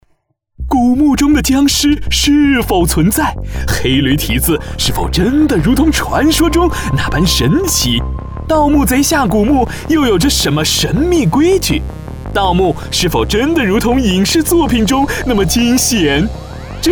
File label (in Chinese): 男 网剧类, 国语男声配音; 盗墓笔记